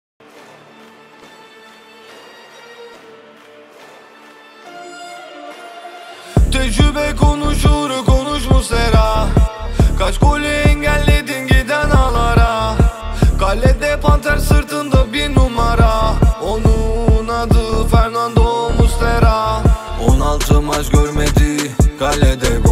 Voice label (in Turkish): rap; muslera livakovic; rap savaslari